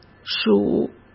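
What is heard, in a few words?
"属", Mandarin Chinese; hsk; chinese flashcards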